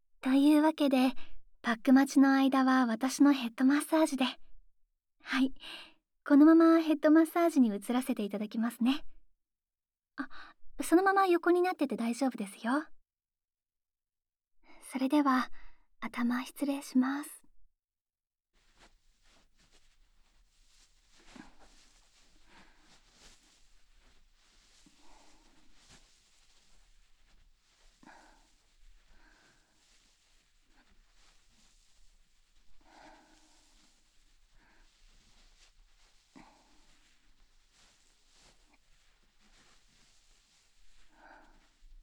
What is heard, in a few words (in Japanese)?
ヘッドマッサージASMR・催眠風囁き; 頭皮もお耳もリラックスしていきます